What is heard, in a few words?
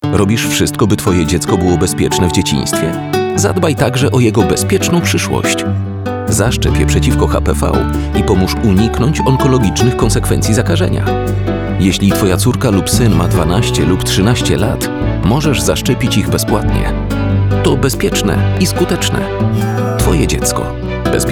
spot RADIO; HPV